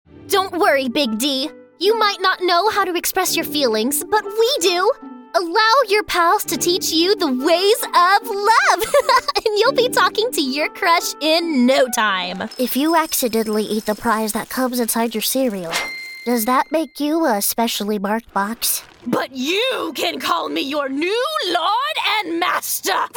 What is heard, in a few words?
Animation